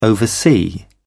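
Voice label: "oversee", English, British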